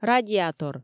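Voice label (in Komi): "радиатор", Komi, female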